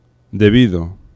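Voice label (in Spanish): "debido", Spanish; laboratori fonetica; aproximants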